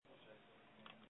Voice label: call recording